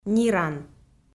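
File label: "ниран", Komi, female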